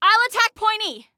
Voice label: ATTACK, Female; T2 Female 03